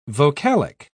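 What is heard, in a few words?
"vocalic", British English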